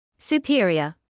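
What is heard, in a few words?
"superior", British English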